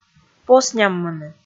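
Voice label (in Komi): "посняммыны" Komi, female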